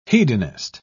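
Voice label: "hedonist", British English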